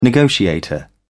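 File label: "negotiator", British English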